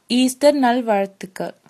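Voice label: easter, female, tamil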